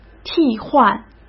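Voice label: "替换", Mandarin Chinese; hsk; chinese-flashcards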